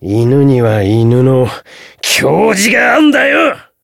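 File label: Voice limitbreak Japanese; hardy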